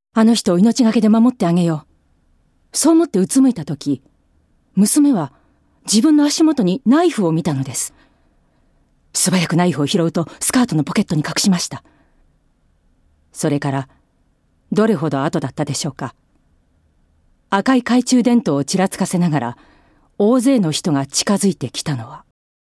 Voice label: female voice

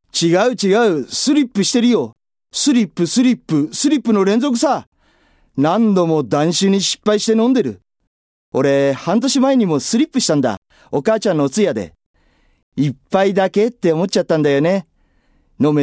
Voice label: male voice

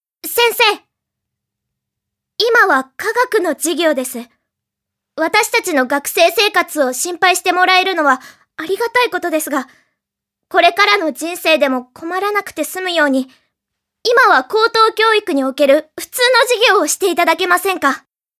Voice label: female voice

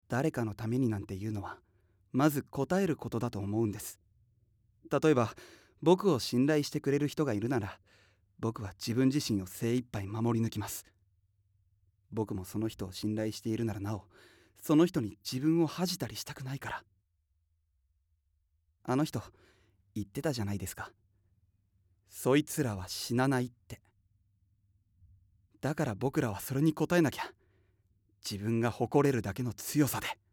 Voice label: male voice; セリフ

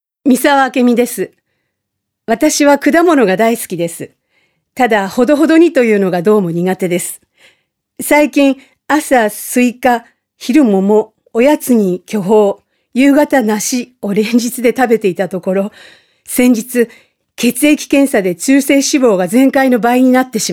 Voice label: freetalk, female voice

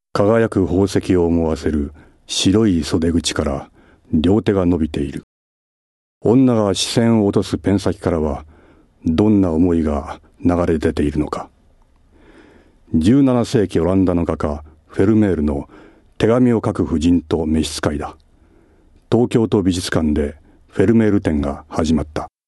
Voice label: male voice

